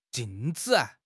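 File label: "jing4 zi5", Mandarin Chinese; HSK; HSK4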